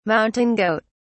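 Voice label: "mountain goat", British English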